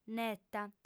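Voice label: "neta", Sardinian, female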